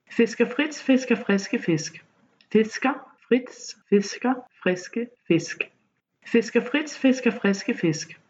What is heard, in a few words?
fisker frits, danish tonguetwisters